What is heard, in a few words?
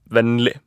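"vennlig", Norwegian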